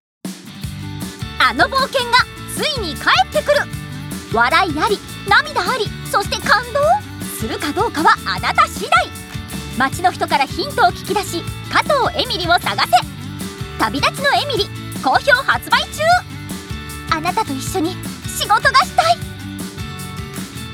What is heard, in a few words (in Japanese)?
ナレーション